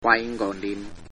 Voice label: welcome taiwanese